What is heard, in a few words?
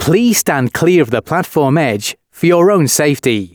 announcements, male